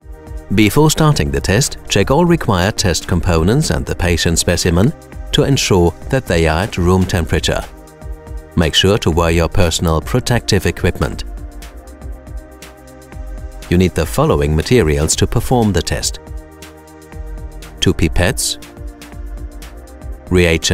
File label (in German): sprecher englisch labor